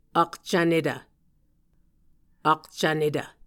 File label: "akcvnetv", Muscogee